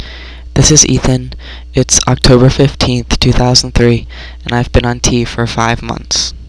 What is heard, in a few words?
Five Months, testosterone, voiceclips; transition